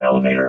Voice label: "elevator", robot

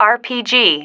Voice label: "RPG", female

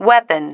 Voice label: "weapon", female

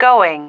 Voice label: "going", female

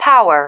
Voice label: "power", female

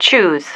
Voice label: "choose", female

